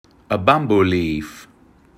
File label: "a bamboo leaf", English